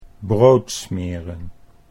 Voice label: "brood smeren", Dutch

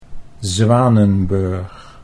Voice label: "Zwanenburg", Dutch

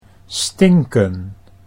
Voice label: "stinken", Dutch

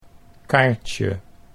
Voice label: "kaartje", Dutch

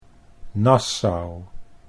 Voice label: "Nassau", Dutch

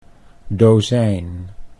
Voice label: "dozijn", Dutch; 'dozen'

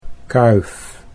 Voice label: "kuif", Dutch

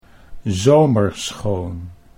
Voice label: "zomerschoon", Dutch

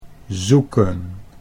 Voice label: "zoeken", Dutch; 'to search (for?)'; think of: 'to seek'

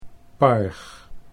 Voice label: "parg", Dutch

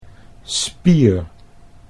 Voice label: "spier", Dutch

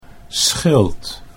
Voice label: "schild", Dutch

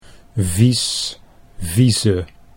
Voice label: "vies vieze", Dutch

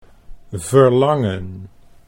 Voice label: "verlangen", Dutch

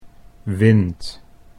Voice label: "wind", Dutch

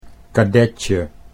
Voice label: "kadetje", Dutch

